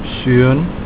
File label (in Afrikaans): "Seun", Afrikaans; son